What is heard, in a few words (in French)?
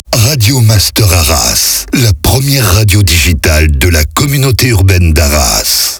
slow, Voix Off; 1ère radio d'Arras